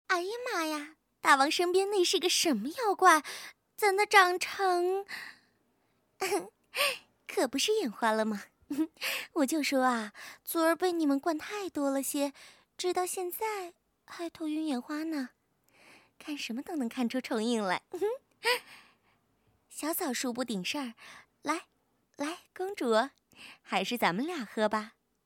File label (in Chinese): 女 游戏动漫 御姐音; 桃花仙